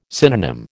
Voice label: "synonym", American

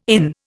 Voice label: "inn", Indian

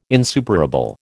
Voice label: "insuperable", American

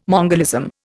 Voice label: "mongolism", Indian

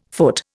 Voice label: "foot", Indian

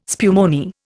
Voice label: "spumoni", Indian

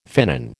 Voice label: "finnan", American

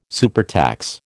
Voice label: "supertax", American

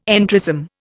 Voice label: "entrism", Indian

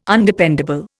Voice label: "Undependable", Indian

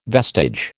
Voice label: "vestige", American

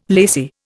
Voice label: "lacy", Indian

